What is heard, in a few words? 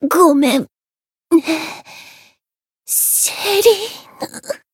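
Voice retire Japanese; sherinu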